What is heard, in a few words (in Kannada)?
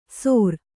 "ಸೊರ", Kannada